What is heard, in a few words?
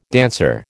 "dancer", American